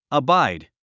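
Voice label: "abide", English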